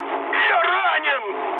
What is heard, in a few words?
damaged pilot, mood high, speech ru; War Thunder